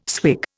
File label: "swig", Indian